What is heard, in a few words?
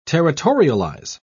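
"territorialize", English, British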